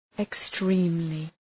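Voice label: "extremely", English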